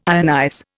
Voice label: "ionize", Indian

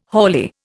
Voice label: "haulier", Indian

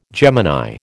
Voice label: "Gemini", American

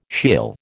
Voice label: "Sheol", American

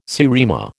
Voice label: "seriema", American

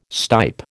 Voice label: "stipe", American

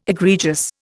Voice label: "egregious", Indian

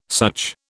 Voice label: "such", British

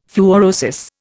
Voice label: "fluorosis", Indian

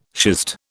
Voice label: "schist", British

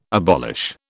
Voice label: "abolish", British

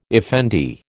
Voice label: "effendi", American

